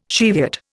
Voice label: "Cheviot", Indian